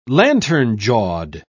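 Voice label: "lantern-jawed", British English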